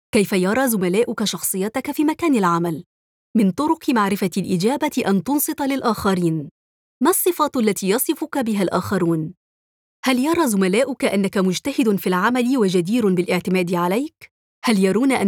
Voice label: E-learning